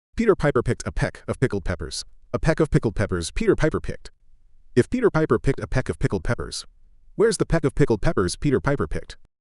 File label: male US fast, classic rhymes; Peter Piper